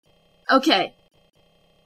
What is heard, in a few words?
women saying okay